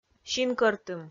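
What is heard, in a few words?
"синкӧртым", Komi, female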